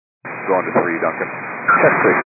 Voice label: 122 725MHz, scanner radio